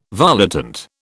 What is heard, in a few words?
"volitant", British